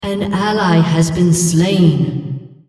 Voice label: female On Champion Kill Hero